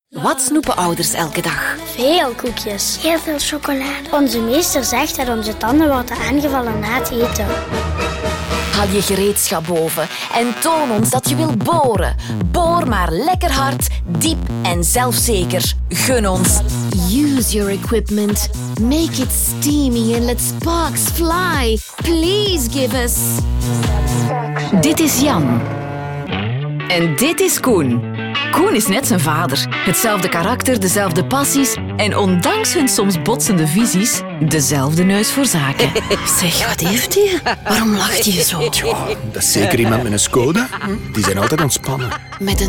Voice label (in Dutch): showreel commercieel